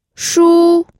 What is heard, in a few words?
"shu1", pinyin